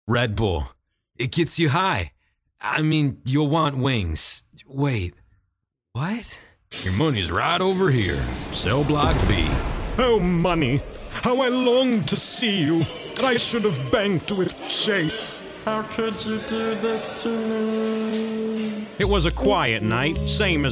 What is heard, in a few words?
character